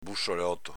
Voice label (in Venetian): "bussoloto", Veneto